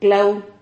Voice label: "clau", Silabas

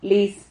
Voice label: "lis", Silabas

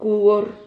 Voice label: "cuor", Silabas

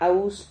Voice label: "haus", Silabas

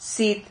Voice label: "sit", Silabas

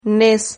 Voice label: "nes", Silabas

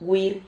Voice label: "gûir", Silabas

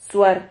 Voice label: "zuar", Silabas

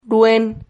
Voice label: "ruen", Silabas

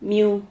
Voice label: "miu", Silabas

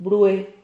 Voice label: "brue", Silabas

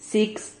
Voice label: "cix", Silabas

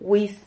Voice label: whis, Silabas

